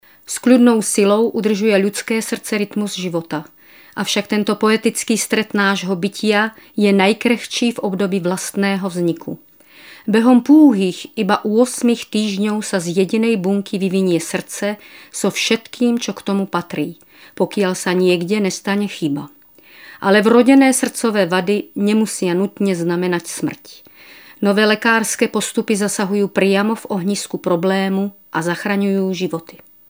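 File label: Female Slovak documentary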